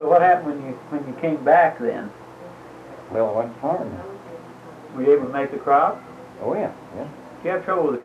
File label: Oral history with 80 year old white male Marked Tree Arkansas, american english dialect